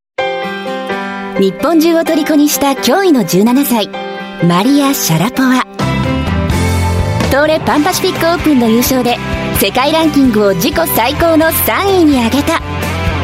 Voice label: Japanese Female TV Program